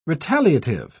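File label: "retaliative", English, British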